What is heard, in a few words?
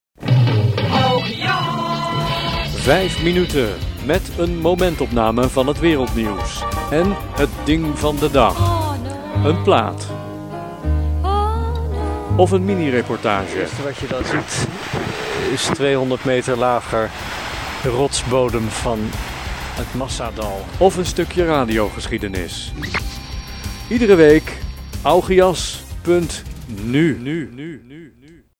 promo